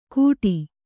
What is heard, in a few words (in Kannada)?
"ಘುಟಿ", Kannada